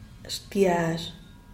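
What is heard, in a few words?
"shtiaxh", Zapotec, Díaz Ordaz; ajo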